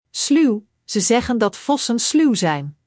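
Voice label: "sluw", Dutch; Spelling Gr4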